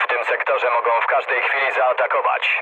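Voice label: Polish chat; speech; War Thunder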